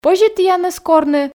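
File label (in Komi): позьӧ тіянӧс корны, Komi, female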